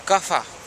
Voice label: "kafa", futuna aniwa; Canoe

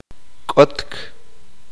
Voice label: uspanteko; cavar

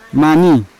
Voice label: "mani" fat, Yawa

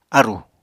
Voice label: "árù", Apatani

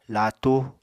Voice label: "laatu", apatani

